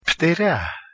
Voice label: "ptera", Ancient Greek